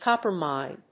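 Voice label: "copper mine", English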